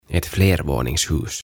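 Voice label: "Ett flervåningshus" Swedish; Kerrostalo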